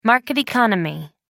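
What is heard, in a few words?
"market economy", English, British English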